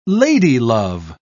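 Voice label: "ladylove", British English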